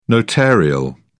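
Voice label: "notarial", British English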